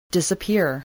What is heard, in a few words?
"disappear", english spelling